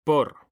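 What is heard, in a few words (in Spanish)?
"POR", Spanish; mekanta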